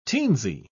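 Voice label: "teensy", British English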